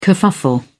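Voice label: "kerfuffle", British English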